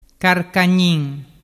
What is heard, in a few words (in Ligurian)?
"carcagnin", dialettu